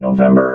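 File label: "november", robot voice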